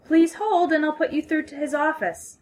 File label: phone formal